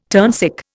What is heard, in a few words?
"turnsick", Indian